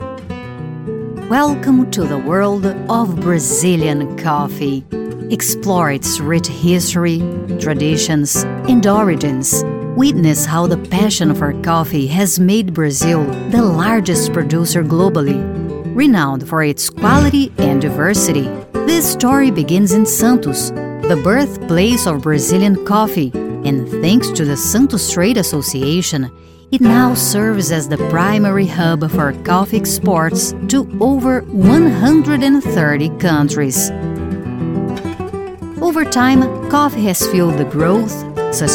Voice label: Video Presentation Conference; BrazilianCoffee SantosCity